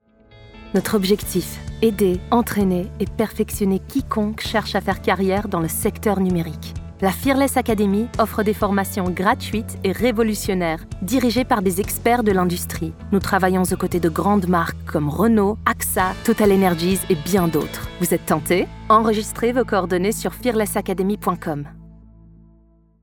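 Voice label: french narration, female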